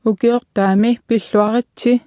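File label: Greenlandic, Ukiortaami Pilluaritsi (pl); Happy New year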